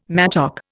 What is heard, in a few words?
"mattock", Indian